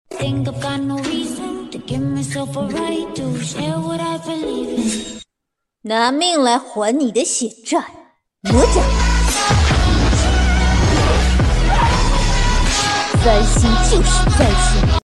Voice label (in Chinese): 动漫配音